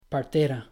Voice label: "partera", Spanish